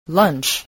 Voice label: "lunch", spelling voice